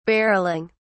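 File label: "barrelling", British English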